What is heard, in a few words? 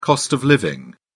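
"cost of living", British English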